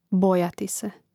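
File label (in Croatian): "bojati se", Hrvatski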